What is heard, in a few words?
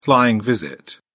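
"flying visit", British English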